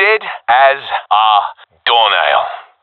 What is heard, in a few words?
i killed enemy, professional epic; vo; Counter Strike Model Pack; CS:GO